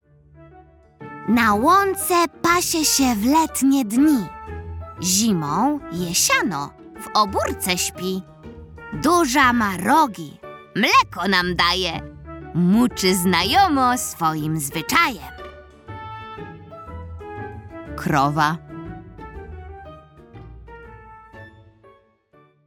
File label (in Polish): narracja; czasopismo mis; lato; zagadka